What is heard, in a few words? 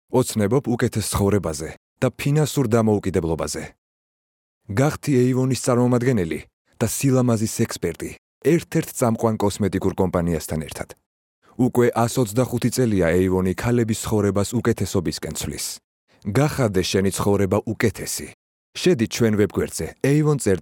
Georgian